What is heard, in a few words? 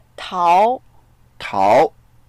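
"tao2", Mandarin Chinese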